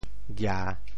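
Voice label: "gia3", Teochew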